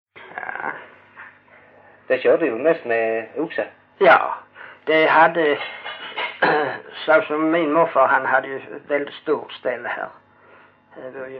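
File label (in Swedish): Hörja Skåne, dialekter; Folkminnen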